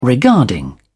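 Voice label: "regarding", British English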